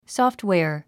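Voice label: "software", English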